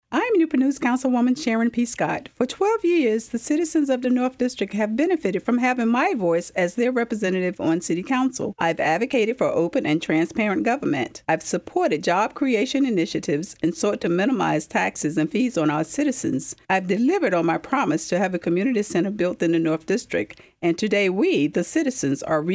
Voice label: Radio ad without Music